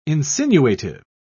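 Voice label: "insinuative", British English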